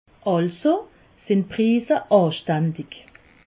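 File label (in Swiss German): prisse anstandig, Alsatian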